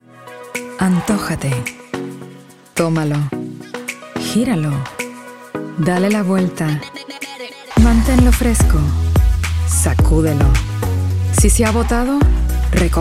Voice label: Spanish